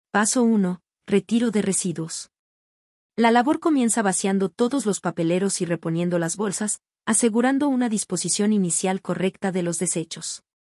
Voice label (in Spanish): locucion